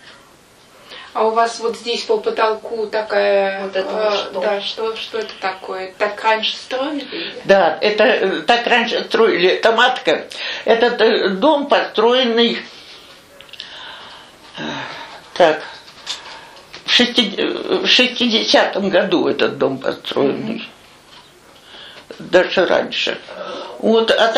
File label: middle ob dialect; матка